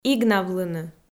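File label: "игнавлыны", Komi-Zyrian, female; audio dict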